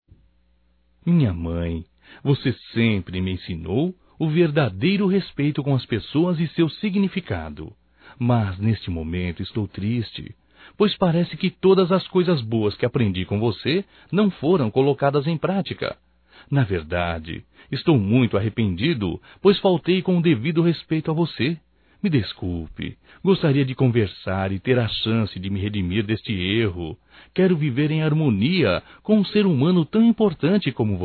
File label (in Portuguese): Desculpas a Mãe Masculino, Só Voz; Telemensagens; Telemensagens 2017